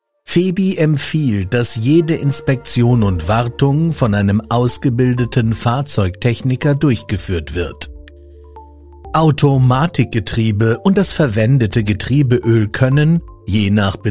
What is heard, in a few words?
German automotive; professioneller deutscher männlicher Sprecher und Synchronsprecher